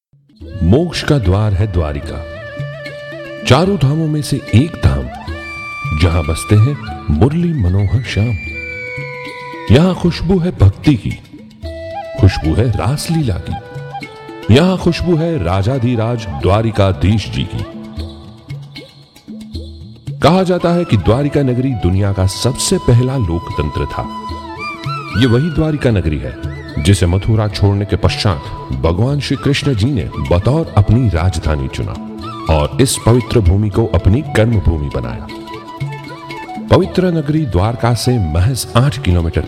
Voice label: corporate hindi